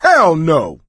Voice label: reaction negative, survivor voice; Left 4 Dead 2; coach